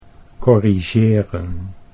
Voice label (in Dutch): "corrigeren", Dutch